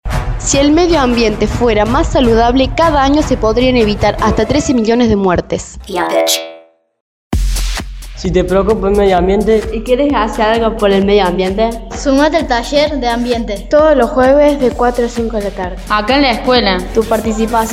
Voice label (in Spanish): Promo T Ambiente